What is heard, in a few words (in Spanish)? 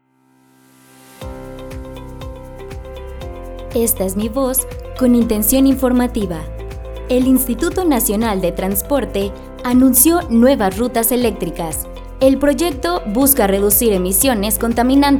Informativa Musicalizado; locutora